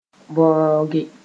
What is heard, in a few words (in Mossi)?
"bõoge", moore